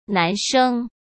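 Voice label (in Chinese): "男生", Mandarin; chinese-flashcards; hsk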